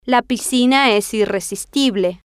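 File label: la Piscina Es Irresistible, Spanish, female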